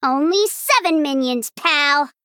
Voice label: Female Gnome ERROR

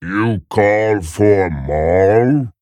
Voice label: Male Ogre

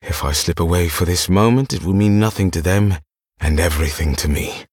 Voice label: Male Blood Elf

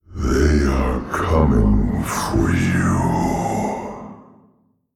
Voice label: Male Old God Creature

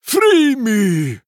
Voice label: Male Draenei Attack

